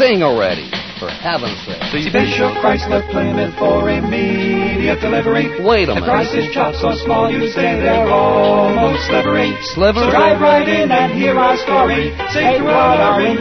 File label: car dealer quartet advertising